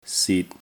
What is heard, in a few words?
"sit6", cantonese